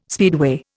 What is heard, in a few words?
"speedway", Indian